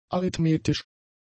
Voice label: "arithmetisch", German; adjectives